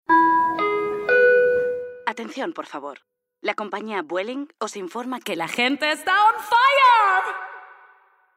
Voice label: female spanish airline comercial

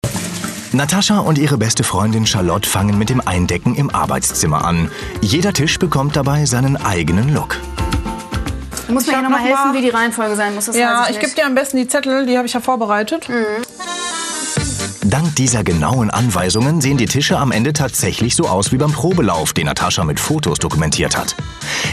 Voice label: male german commercial; ideasonora

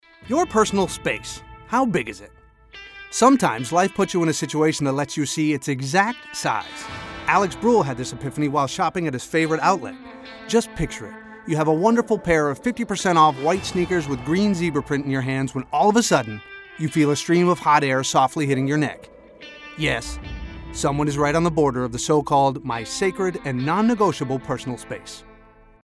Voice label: male american english wear comercial

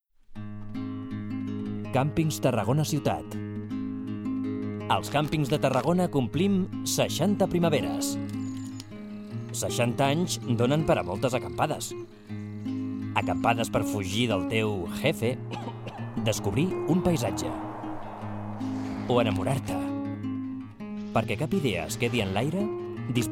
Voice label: male catalan comercial; ideasonora

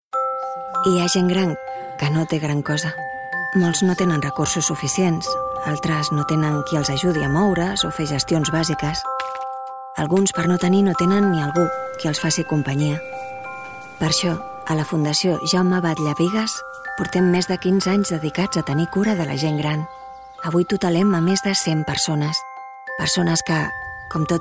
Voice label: female catalan foundation comercial; ideasonora

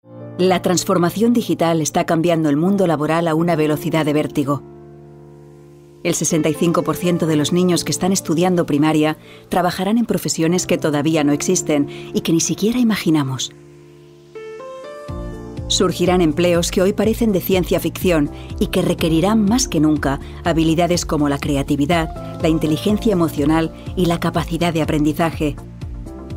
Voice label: female spanish corporative comercial; ideasonora

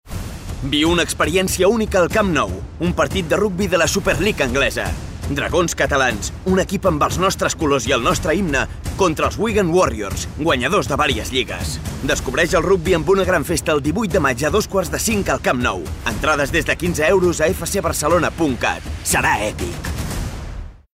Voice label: male catalan football promo comercial; ideasonora

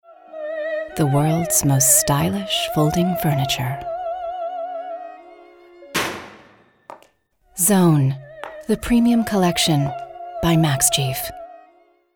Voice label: female american english furniture comercial; ideasonora

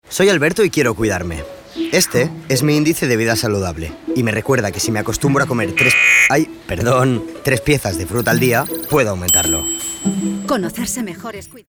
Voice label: male spanish health comercial; ideasonora

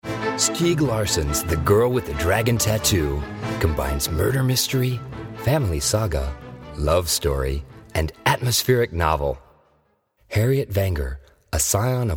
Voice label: male american english book comercial; ideasonora

